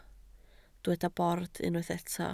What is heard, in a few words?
"bord", Welsh